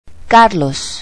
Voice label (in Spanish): "car", Spanish